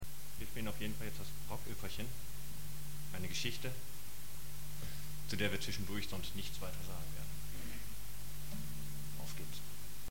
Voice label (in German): ansage; Rockoeperchen I HAM 1995